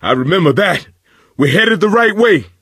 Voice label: misc directional, coach, survivor voice